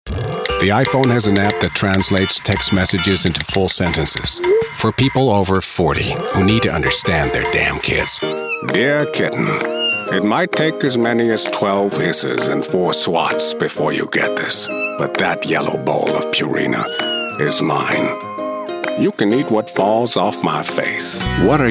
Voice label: Commercial